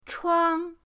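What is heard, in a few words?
"chuang1", Chinese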